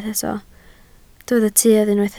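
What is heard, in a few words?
"tuedd" Welsh, spoken word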